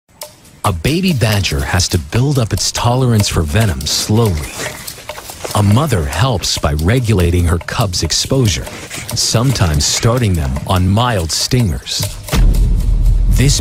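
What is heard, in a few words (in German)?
Dokumentarfilm Erzahler Jugendfrei, English, male; NATIVE PROFISPRECHER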